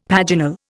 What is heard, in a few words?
"paginal", Indian; Dictionary